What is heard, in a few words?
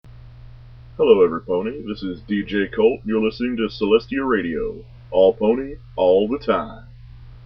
Radio sweeper